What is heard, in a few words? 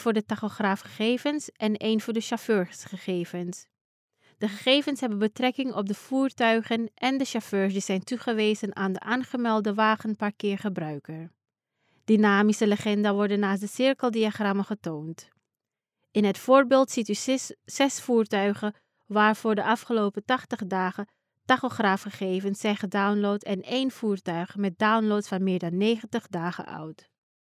female dutch voice over narrative